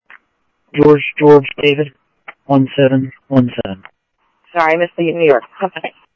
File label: Scottsdale Police, scanner audio; H-Scottsdale; SCTSDLE PD - PD2 ScottsdalePolice